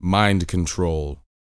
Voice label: talk, sci-fi, vocal; ILIO; Vocal Planet

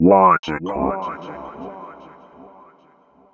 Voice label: Logical, Robotic Vox; 90s Sample CDs; Club Techno

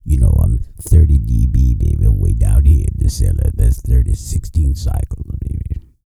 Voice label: E SPEECH, Vocal Planet R&B Dance; BASS CATCH; Spectrasonics

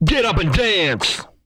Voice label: get up, Vocal, R&B Dance, hip-hop; TB HIP-HOP

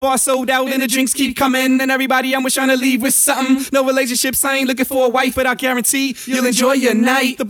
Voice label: Vocals; Vengeance - Essential Clubsounds Vol.4

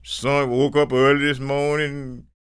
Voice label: STORY, SPOKEN; Spectrasonics; Vocal Planet; Blues Soul

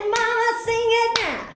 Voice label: "mama sing", shouts; Best Service; Hyper Dance

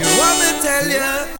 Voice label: "tell ya", vox FX

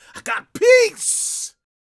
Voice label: PREACHIN, Gospel, PREACHER

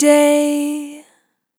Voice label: "Long Day", Vocal Samples, Words

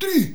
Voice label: "RUMANIA", SHOUTS; Best Service; Hyper Dance

